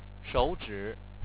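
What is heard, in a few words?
"shou3zhi3", Chinese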